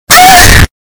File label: anime distorted